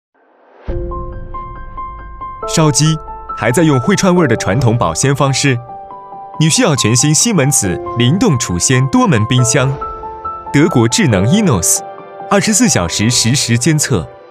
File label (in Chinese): 男 家电 多门冰箱; 西门子灵动储鲜多门冰箱